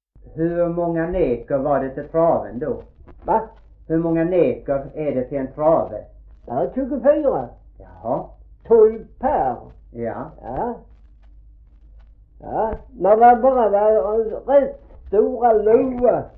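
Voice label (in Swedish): Gråmanstorp Skåne, dialekter svenska sagor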